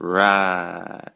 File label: "right", male; Voice